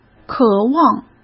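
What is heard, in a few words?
"渴望", Mandarin Chinese; chinese flashcards; hsk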